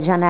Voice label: "zhena", Tula